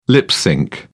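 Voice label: "lip-synch", British English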